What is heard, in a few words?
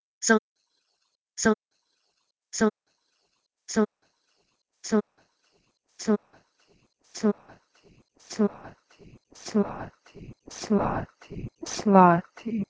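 Proto-Indo-European "slh1" to Bosnian-Croatian-Serbian "slati"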